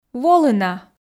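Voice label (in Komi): "волы на", Komi, female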